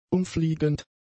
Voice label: "umfliegend", German; adjectives

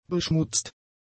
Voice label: "beschmutzt", German; adjectives

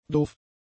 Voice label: "doof", German; adjectives

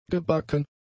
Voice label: "gebacken", German; adjectives

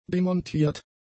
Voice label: "demontiert", german; adjectives

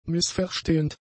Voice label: "missverstehend", German; adjectives

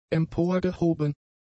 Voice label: "emporgehoben", german; adjectives

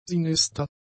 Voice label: "sinister", german; adjectives

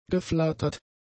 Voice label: "geflattert", German; adjectives

